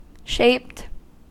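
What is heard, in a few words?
"shaped", US English; pronunciations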